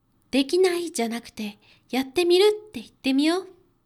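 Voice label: female; できない」じゃなくて「やってみる」って言ってみよう。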